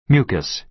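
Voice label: "mucus", American English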